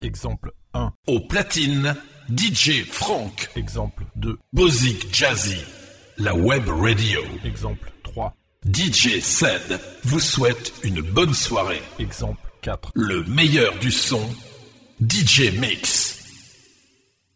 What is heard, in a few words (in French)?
Jingles; YCFA